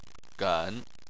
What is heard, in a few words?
"gen3", Chinese